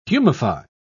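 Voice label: "humify", English, British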